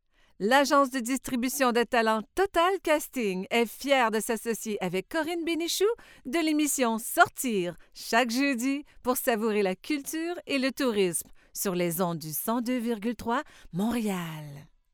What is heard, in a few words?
french canadian radio imaging